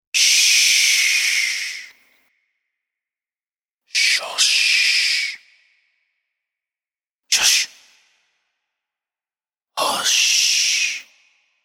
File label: Shush Spoken, The word 'Sssssssh' 'Hush' and 'Shush' whispered in male voice x 4; Whispering